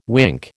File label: "wink", American